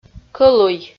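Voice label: "кӧлуй", Komi-Zyrian, female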